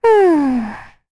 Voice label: Think, kr; Rehartna; kingsraid